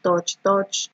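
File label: "touch touch", Nigerian Pidgin, female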